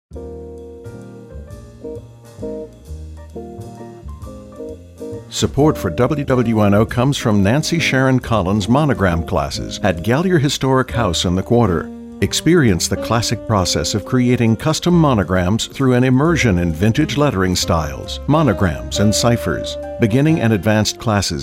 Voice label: underwriting spot; WWNO; NPR